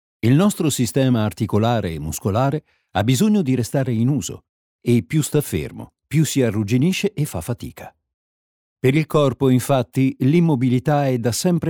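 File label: Italian male voice over, narrative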